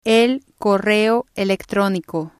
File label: "el correo electronico", spanish